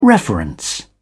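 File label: "reference", English, uk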